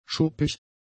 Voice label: "schuppig", German; adjectives